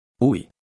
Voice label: Phoneme Male; Commonscript; Lynguistykon